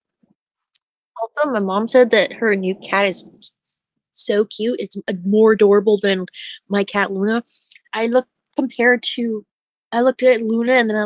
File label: voice message; Discord; Outcast Gaming